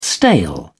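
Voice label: "stale", British English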